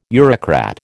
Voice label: "Eurocrat", American